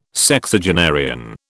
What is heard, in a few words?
"Sexagenarian", British